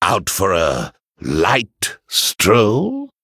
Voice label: Male Venthyr Play